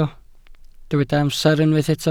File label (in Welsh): "amser", Welsh